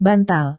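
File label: "bantal", Indonesian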